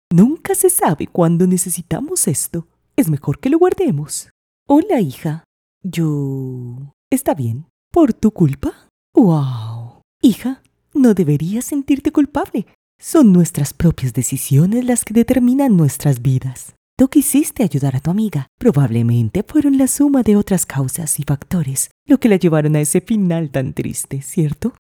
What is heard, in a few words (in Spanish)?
VOZ MAMA; personajes chica carton